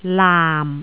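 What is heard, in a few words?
"laam4", Jyutping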